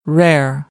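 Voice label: "rare", American English; AmericanBritish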